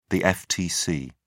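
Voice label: "ftc", British English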